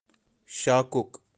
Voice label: "shakuk", kashmiri; kashmiri birds